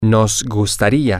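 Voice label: we would like, Spanish, male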